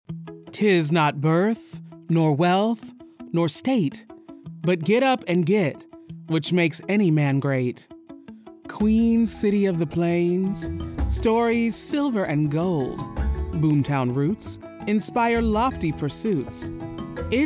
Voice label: Spoken Word Promo, Urban, African-American, Female Voice Over; Denver